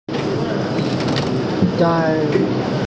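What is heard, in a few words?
"zi3dan4", Mandarin Chinese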